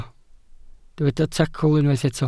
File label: "tacl", Welsh